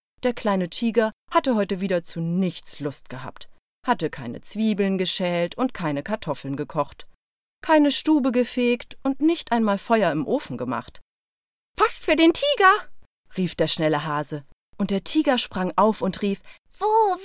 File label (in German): Hoerbuch Kinder